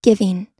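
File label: "giving", American English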